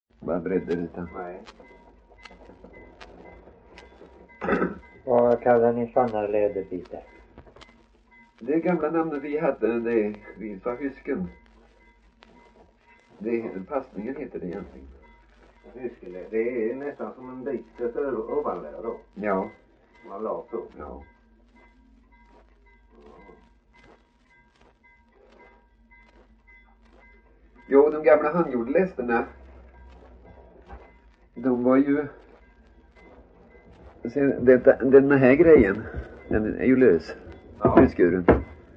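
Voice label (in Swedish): Värö Halland, Svenska sagor dialekter; Folkminnen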